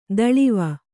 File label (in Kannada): "ದಳಿವ", Kannada